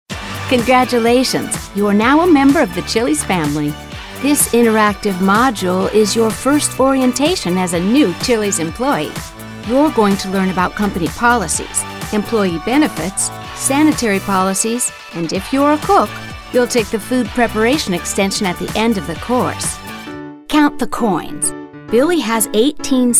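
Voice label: ELearning, voiceover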